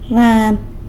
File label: "rran" frog; san lucas quiavini